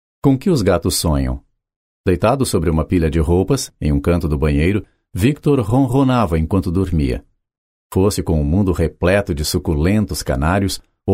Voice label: portuguese brazilian audiobooks; locutor profissional no Brasil

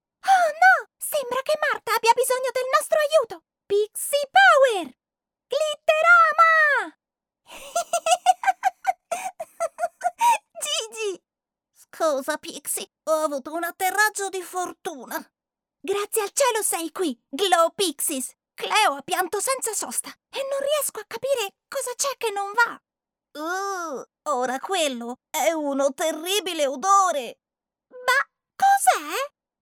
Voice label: italian animation

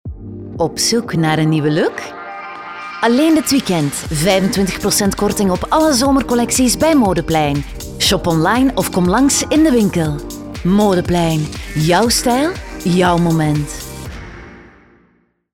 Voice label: flemish promos; flemish voice actors

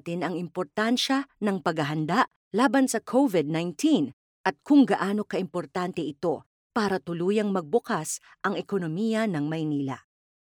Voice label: tagalog e-learning

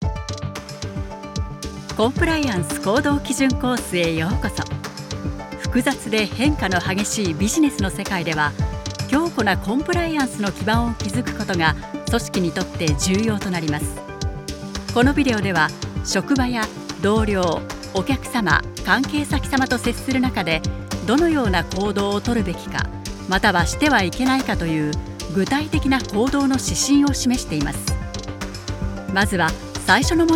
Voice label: japanese e-learning

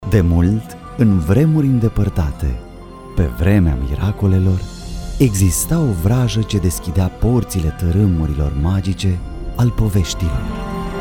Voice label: romanian animation

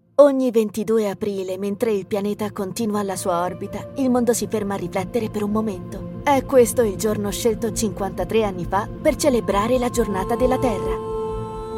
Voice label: italian others

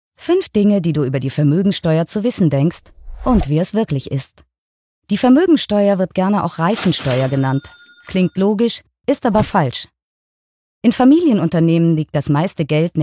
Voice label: german political ads; voice actor

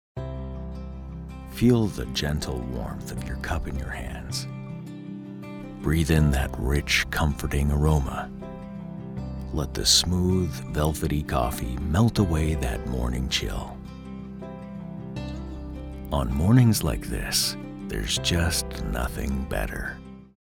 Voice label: english canadian others

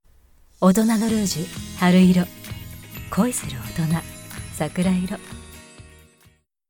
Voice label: japanese commercial

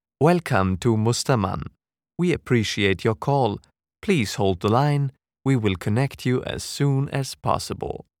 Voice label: english ivr

